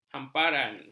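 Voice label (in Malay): "hamparan", Malay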